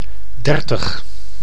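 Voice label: "dertig", Dutch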